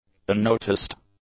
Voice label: "unnoticed", English; adjectives